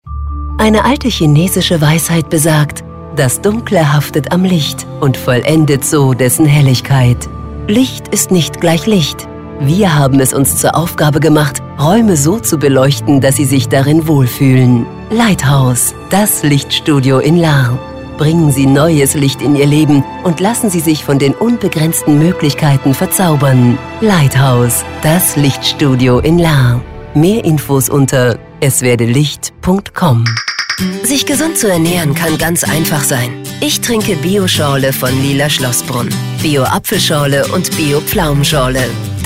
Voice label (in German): telefonansagen sprecher; angelina jolie